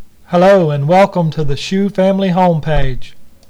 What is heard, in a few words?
announcement